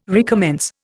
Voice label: "recommence", Indian

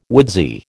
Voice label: "woodsy", American

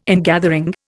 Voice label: "ingathering", Indian

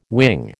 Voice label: "wing", American

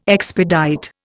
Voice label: "expedite", Indian

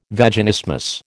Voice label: "Vaginismus", American

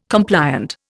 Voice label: "compliant", Indian

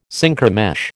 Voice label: "Synchromesh", American

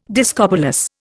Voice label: "discobolus", Indian

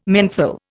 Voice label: "menthol", Indian

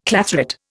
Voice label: "clathrate", Indian

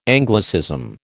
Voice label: "Anglicism", American

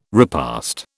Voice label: "repast", British

